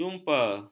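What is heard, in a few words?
"jumpa", Malay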